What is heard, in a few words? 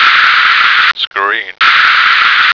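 "screen", American English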